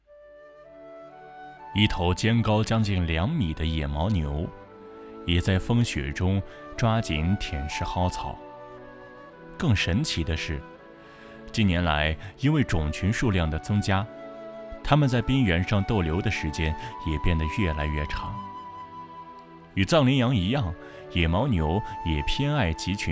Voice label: 纪录片

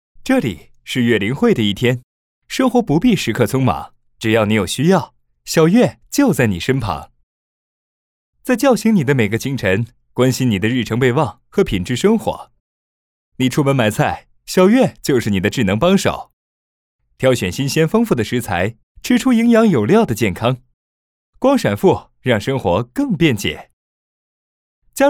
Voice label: 企业 年轻 时尚

